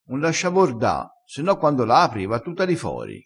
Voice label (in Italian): "sciaborda", dialettale